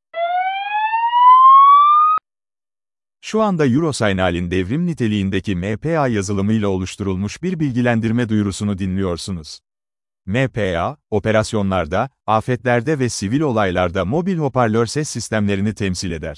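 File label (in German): Infodurchsage, Turkish; MPA-App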